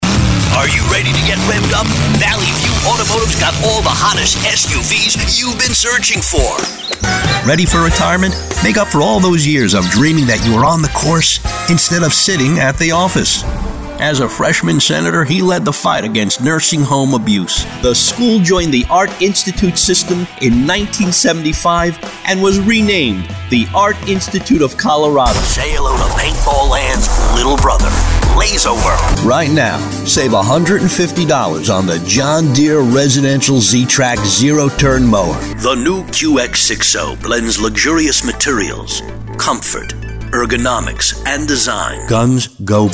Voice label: Commercial